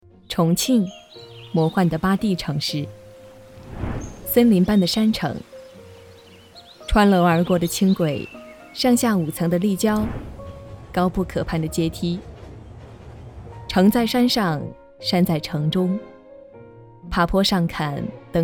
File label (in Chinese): 纪录片